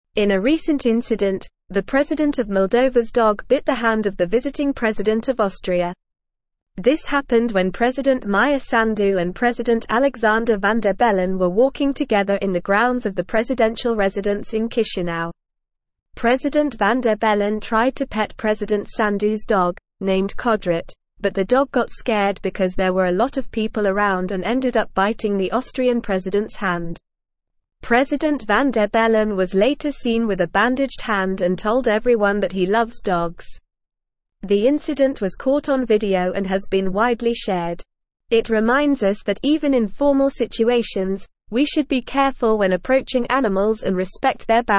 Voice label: English Upper Intermediate NORMAL Reading; Moldovan Presidents Dog Bites Austrias President; English Newsroom